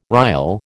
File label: "rille", American